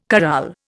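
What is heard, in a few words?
"corral", Indian English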